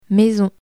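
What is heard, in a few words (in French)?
"maison", French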